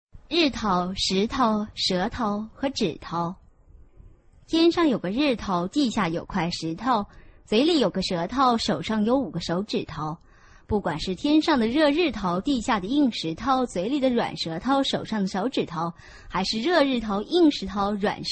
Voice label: chinese tongue twister